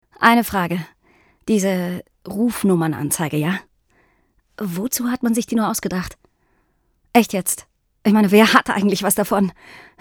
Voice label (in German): Schauspiel Variabel